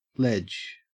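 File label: "ledge", Australian English; pronunciations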